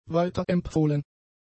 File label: "weiterempfohlen", German; adjectives